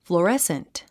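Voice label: "fluorescent", English; 発音fluərésnt フルアレスント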